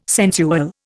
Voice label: "sensual", Indian

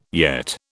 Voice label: "yet", British

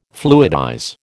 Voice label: "fluidize", American

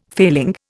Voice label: "failing", Indian

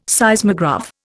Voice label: "Seismograph", Indian

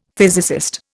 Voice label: "physicist", Indian; Dictionary

